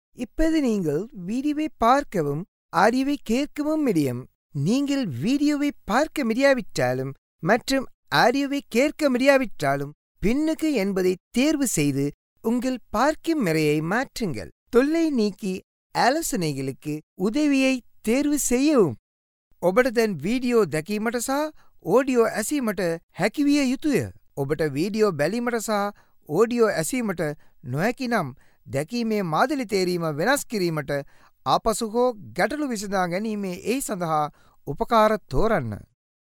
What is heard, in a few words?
Tamil Sinhalese Male